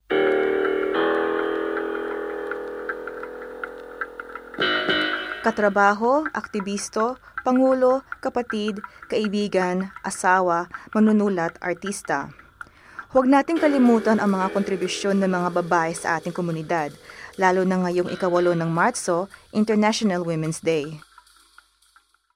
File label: Filipino